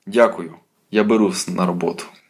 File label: dialogue, Ukrainian